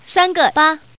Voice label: Woman